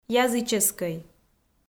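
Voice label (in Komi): "языческӧй", Komi, female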